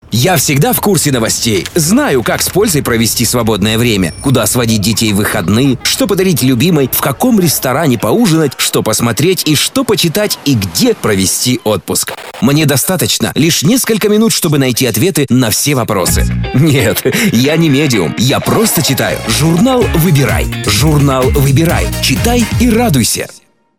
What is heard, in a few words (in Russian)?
Информационный ролик; Выбирай